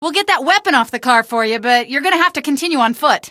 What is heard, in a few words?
get tau off, female; Source Engine; video game; coast; barn